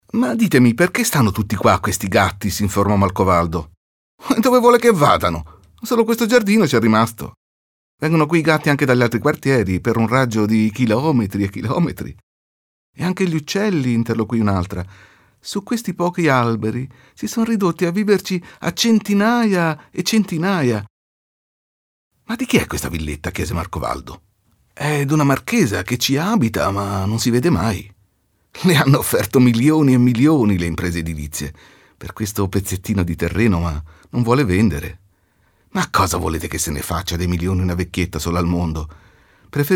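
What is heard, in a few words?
Italian, Schaupiel Dynamisch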